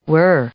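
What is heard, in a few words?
"were", English, female; verb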